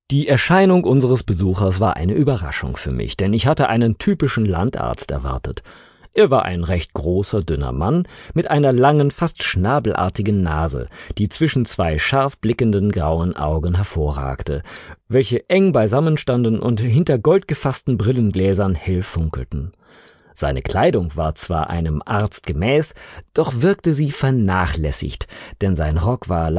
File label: German Voice Over sample